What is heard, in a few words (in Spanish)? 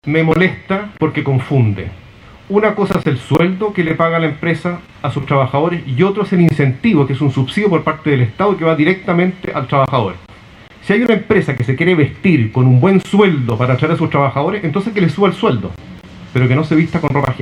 CUÑA